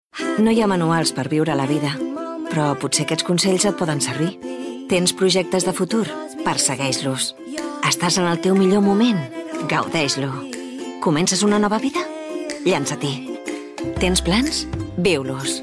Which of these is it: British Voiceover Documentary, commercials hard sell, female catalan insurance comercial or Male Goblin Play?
female catalan insurance comercial